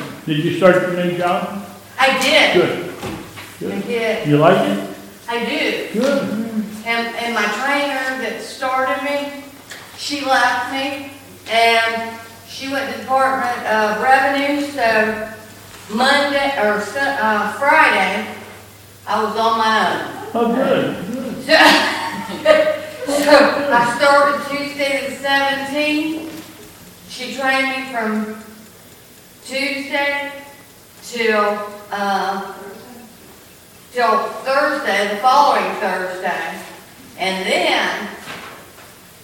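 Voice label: Announcements, church service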